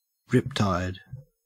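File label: "riptide", Australian English; pronunciations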